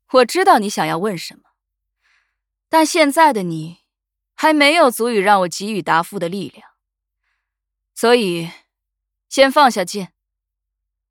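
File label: voice Chinese gain; sibylle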